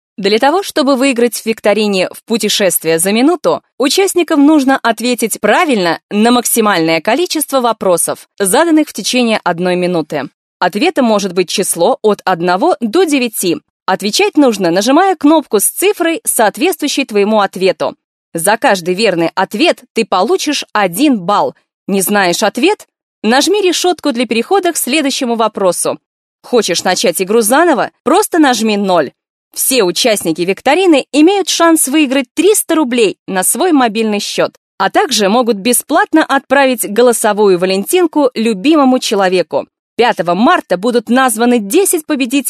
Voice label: russian female

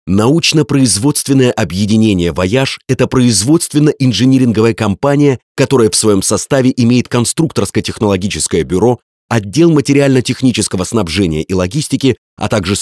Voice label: russian male

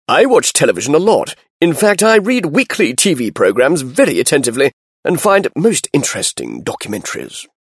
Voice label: british english male

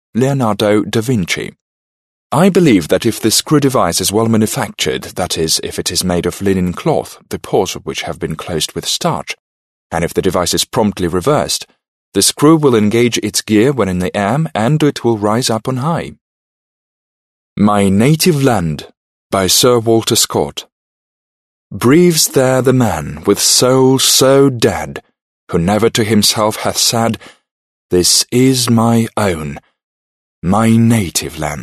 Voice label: british english male

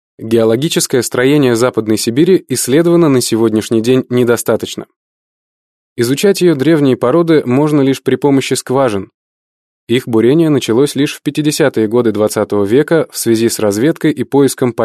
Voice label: russian male

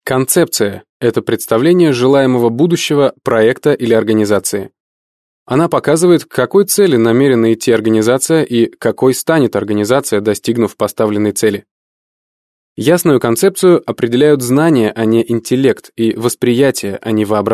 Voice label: russian male

